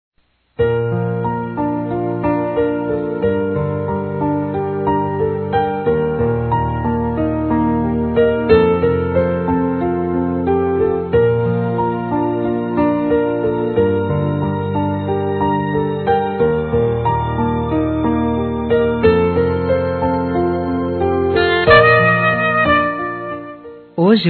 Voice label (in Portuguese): cunhado, Fem; Aniversários; Aniversário Distante